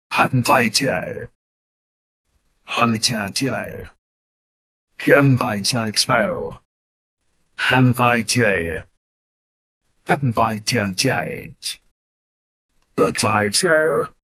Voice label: very loud person who says, advertisement

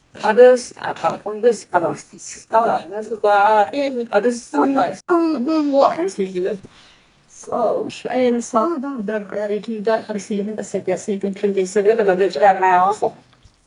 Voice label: a woman speaking, female voice

